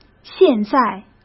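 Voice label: "现在", Mandarin Chinese; chinese-flashcards; hsk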